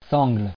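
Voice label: "sangle", French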